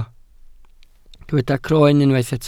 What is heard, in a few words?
"croen", welsh